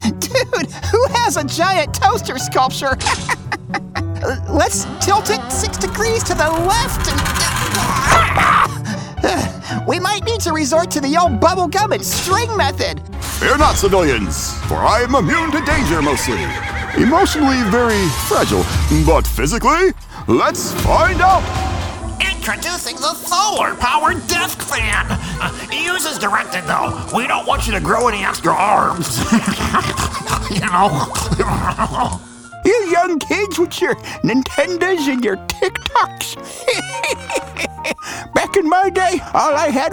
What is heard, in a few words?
Characters, Character / Cartoon / Animation Demo Reel